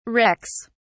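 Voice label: "rex", British English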